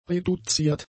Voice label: "reduziert", German; adjectives

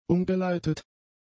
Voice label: "umgeleitet", German; adjectives

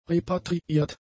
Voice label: "repatriiert", German; adjectives

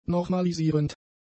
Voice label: "normalisierend", German; adjectives

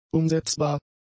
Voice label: "umsetzbar", German; adjectives

